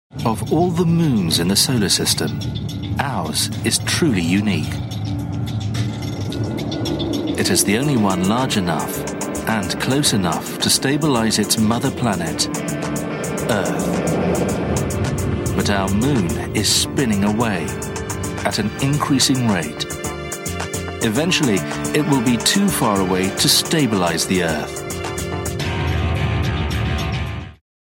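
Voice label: British narration drama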